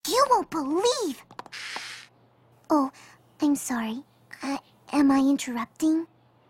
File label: shy sorry